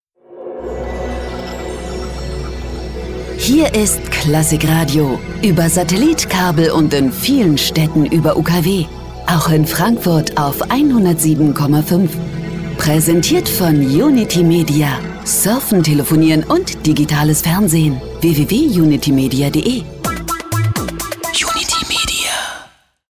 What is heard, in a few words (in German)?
deutsch frauen feature; sprecher